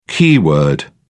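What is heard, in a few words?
"keyword", British English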